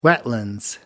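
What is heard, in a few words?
"wetlands", British English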